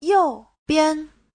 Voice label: "you4 bian5", Chinese